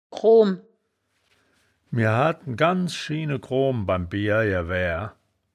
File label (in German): "groom", wittlich